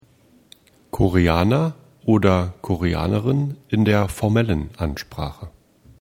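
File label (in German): koreaner formal, German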